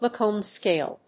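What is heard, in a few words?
"macome scale", English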